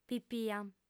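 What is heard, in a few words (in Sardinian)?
"pipia", female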